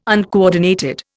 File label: "uncoordinated", Indian